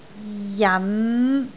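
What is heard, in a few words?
"jan5", Cantonese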